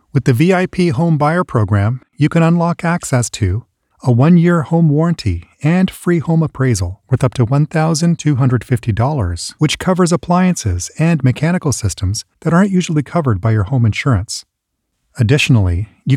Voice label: corporate narration, Professional Male Voice Actor